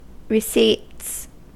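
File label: "receipts", US English; pronunciations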